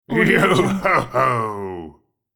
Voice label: pirate says yo ho ho